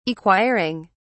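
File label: "acquiring", British English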